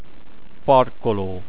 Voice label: parking, hungarian

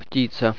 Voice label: "poultry", Russian

